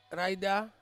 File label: "raida", Ansus; afternoon